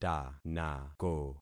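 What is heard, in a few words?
"tanako", concatenated syllables; Affixing experiment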